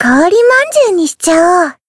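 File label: encounter fear, Japanese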